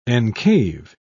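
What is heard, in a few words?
"encave", British English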